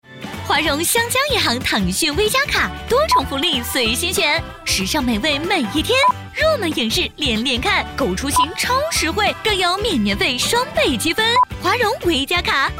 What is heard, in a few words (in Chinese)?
电台 银行欢快; 华融湘江银行